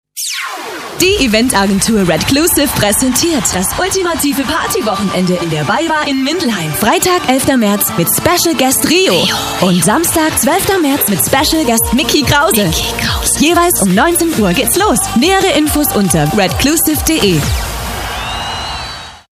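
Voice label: german female commercial; voice demos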